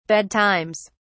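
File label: "bedtimes", English, British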